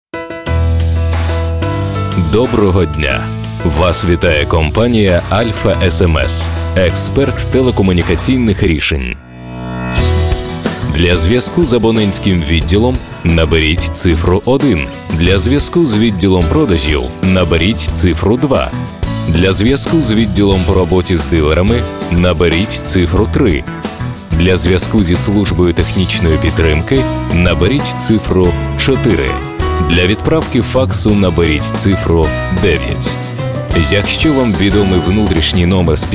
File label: golosovoe privetstvie ivr; Avtootvetchik